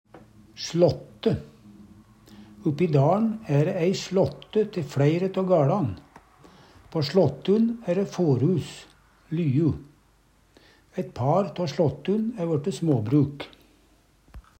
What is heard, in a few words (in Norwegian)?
"slåtte", Numedalsmål